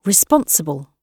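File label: "responsible", British English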